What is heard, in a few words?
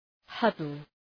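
"huddle", English; {‘hʌdəl}; μυστικό συμβούλιο; συνωστισμός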